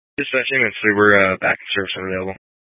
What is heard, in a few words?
Fire Dispatch, scanner